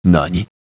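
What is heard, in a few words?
Vox, voices, Japanese; Lusikiel; kings raid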